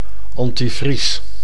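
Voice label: "antivries", Dutch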